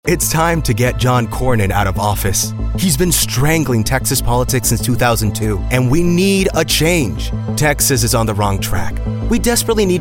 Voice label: Attack English, voiceover for political attack ad

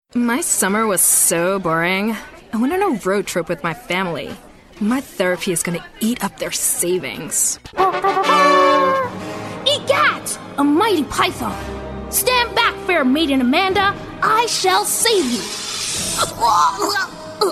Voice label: Animation Character, voiceover

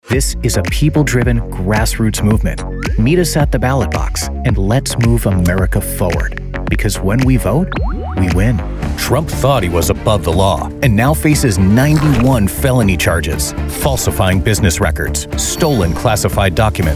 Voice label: Political, voiceover

